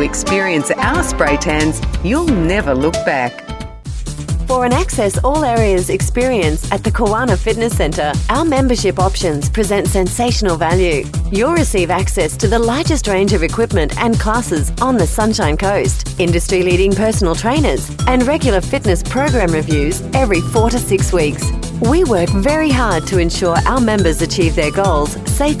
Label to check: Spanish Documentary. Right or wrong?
Wrong